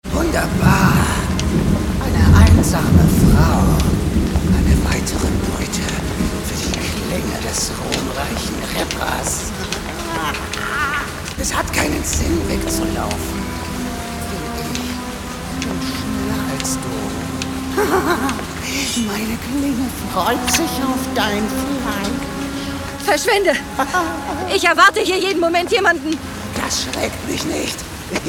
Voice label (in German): Hoerspiel verrueckt Moerder verstellte Stimme extrem fieser Boesewicht